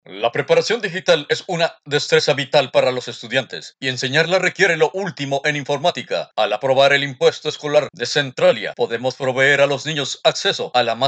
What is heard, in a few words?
complex digital world spanish radio